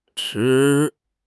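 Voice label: "zhi1", Mandarin Chinese; HSK3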